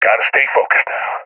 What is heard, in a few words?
scared; Counter Strike; CS:GO; seal epic